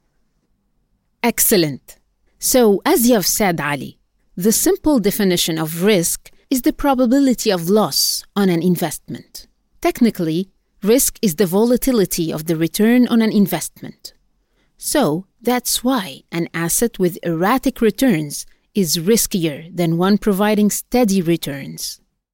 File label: english podcast investment